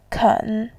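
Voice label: "ken3", Mandarin Chinese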